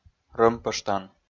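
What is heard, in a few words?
"рӧмпӧштан", Komi, male; kpv audio dict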